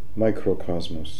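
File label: "Microcosmos", pronunciations; Fourth Way; Beelzebub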